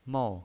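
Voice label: "mou", pinyin voice, Mandarin Chinese, fourth tone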